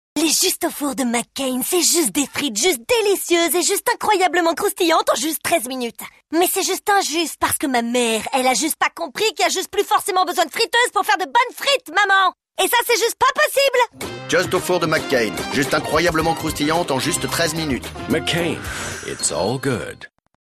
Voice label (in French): radio pub spot